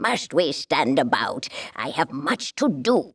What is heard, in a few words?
Female; baldurs1